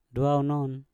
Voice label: "dwau non", Mpur; anak babi